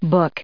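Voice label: "book", English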